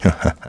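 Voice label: Vox Happy, English; kingsraid; voices heroes en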